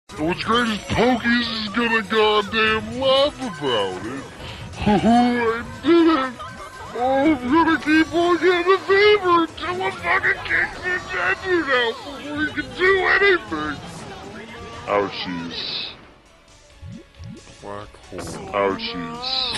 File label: dude very mad